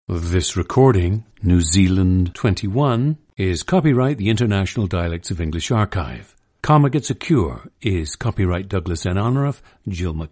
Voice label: New Zealand